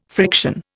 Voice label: "friction", Indian